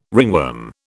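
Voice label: "ringworm", British English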